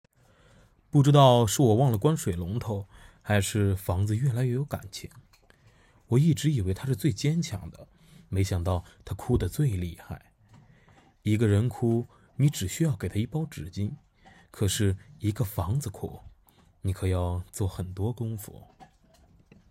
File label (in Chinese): 情感试音